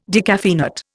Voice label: "decaffeinate", Indian